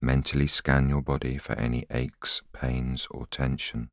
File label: voiceover